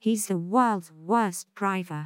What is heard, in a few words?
CREAKY